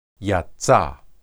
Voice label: "yatsa", Hebrew

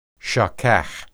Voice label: shakah, hebrew

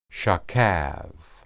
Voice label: "shakab", Hebrew

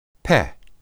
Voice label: peh, hebrew

